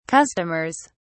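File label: "customers", British English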